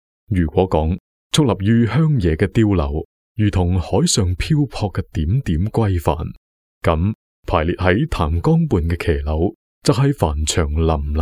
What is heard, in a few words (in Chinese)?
粤男 旅游; 赤坎旅游